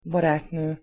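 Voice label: "baratno", Hungarian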